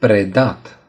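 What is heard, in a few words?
"teach", Romanian; gcompris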